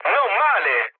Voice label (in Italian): trick, mood med, speech it; War Thunder